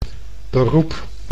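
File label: "beroep", Dutch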